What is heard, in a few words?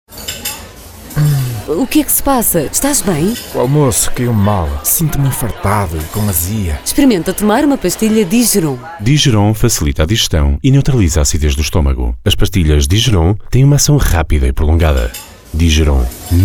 spot; Digeron